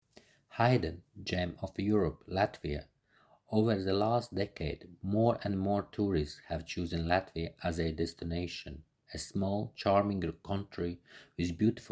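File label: Latvian, Accented English, voice demos; GoLocalise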